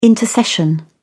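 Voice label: "intercession", British English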